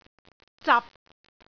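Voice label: "zap6", Cantonese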